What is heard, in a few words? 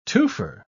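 "twofer", English, British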